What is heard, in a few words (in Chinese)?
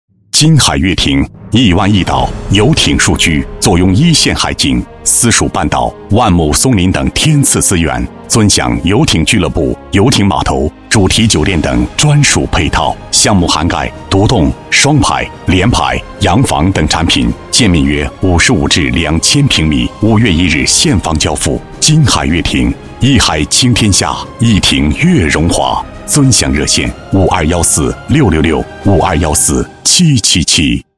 男 宣传片; 金海悦庭